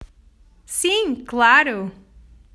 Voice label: Sim claro, Portuguese